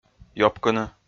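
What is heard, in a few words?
"ёпкыны", Komi, male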